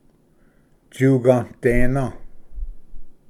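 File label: "tsuganadena", Cherokee; ᏧᎦᎾᏕᎾ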